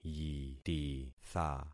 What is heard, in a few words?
"yititha", concat syllables; Affixing experiment